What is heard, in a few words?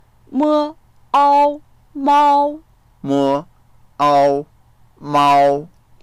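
"mao1" spelled, Mandarin Chinese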